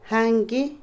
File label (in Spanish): polvo, Otomí, mujer; Vocabulary; INALI